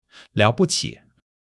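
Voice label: "liao3 bu5 qi3", Mandarin Chinese; 基础汉语40课; amazing; great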